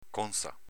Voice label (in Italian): "conza", dialetto veneto